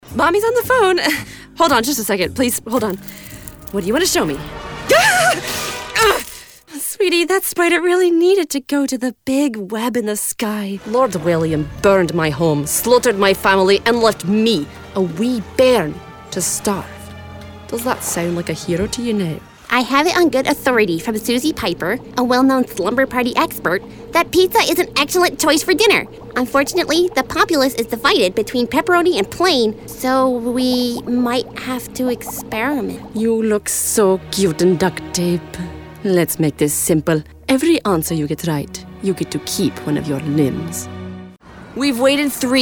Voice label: Animation

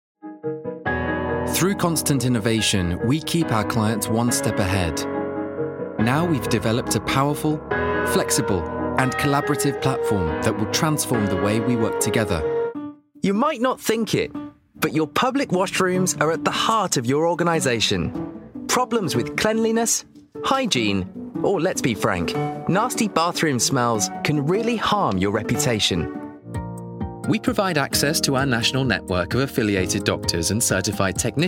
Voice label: Corporate

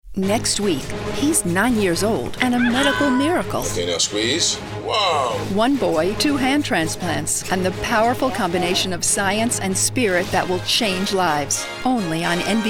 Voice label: Promo

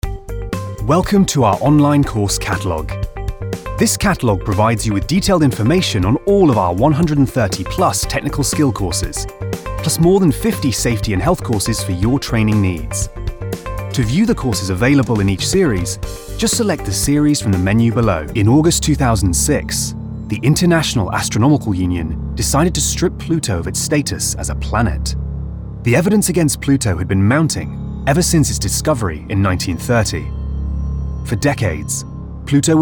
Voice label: Narrative

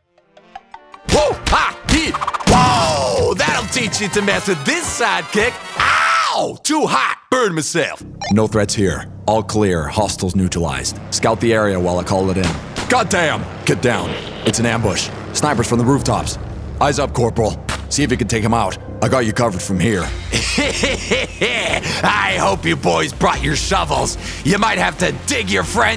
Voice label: Gaming Voicereel

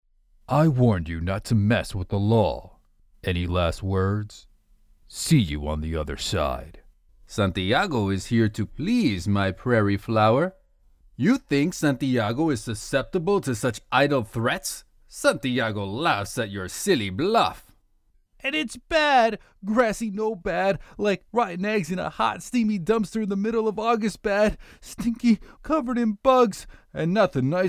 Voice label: Character

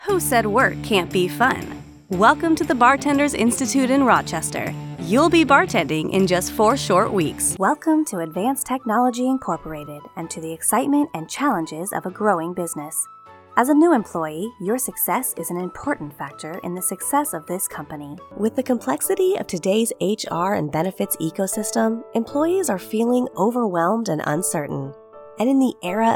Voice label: Corporate Demo

